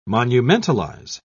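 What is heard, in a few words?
"monumentalize", British English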